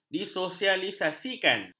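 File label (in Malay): "disosialisasikan", Malay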